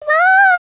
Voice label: run away, voice commands; svencoop; Miku

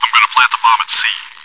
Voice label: chitchat, bot, voice commands; svencoop

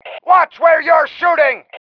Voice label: watch it, male

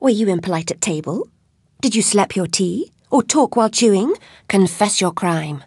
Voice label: chitchat; svencoop; Alice

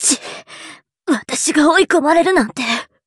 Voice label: dead, Anime Loli, voice commands; svencoop

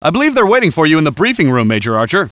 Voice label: repair guy; gearbox; gunman

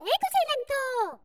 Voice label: cheer, voice commands; svencoop; Miku

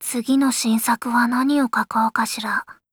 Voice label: chitchat, voice commands; svencoop; Blanc

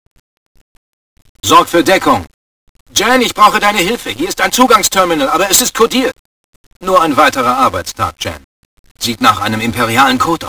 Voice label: radio

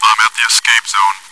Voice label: chitchat; svencoop

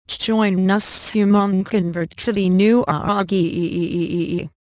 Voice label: cyborg join us; svencoop; outerspace

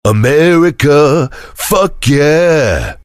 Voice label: cheer; svencoop; voice commands; Duke

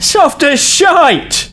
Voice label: Taunt, male; svencoop

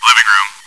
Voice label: chitchat, bot, voice commands; svencoop

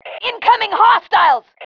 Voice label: incoming enemy, FEM

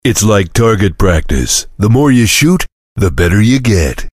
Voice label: chitchat; svencoop; voice commands; Duke

